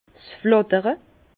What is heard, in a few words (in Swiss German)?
"flattere", Bas Rhin; vin; voltigeurs